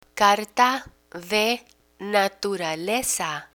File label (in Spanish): "carta de naturaleza", Spanish